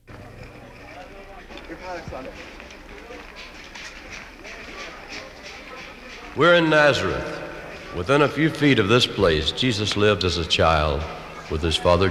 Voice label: A Mother's Love (Narrative)